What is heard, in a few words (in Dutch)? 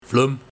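"Vlum", dialect; 1. Visgraat; 2. Voorloper van muskaton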